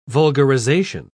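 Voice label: "vulgarisation", British English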